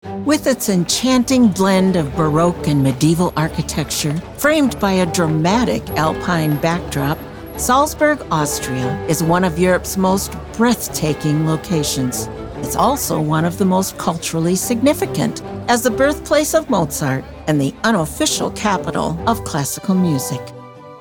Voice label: narration; Vibrant Voices; salzburg